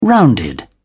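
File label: "rounded", British English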